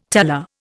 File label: "teller", Indian